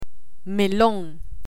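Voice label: "melon", Spanish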